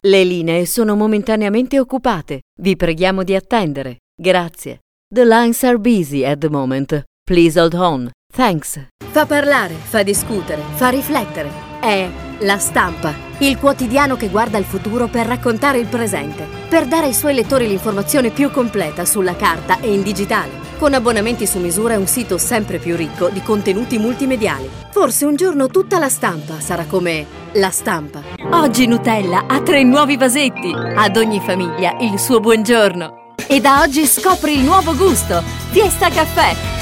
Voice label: italian female